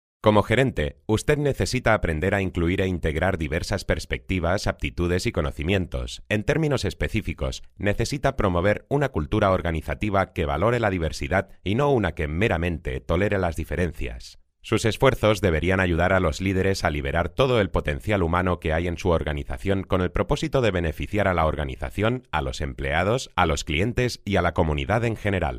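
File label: ES M elearning, Spanish, male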